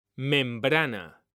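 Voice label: "membrana", Spanish; mekanta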